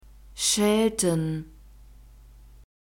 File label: "schelten", German; verbs present tense irregular